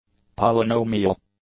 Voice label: "polynomial", english; adjectives